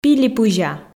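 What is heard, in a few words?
"пилипызя", Komi, female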